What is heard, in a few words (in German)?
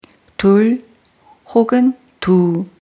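"zwei", German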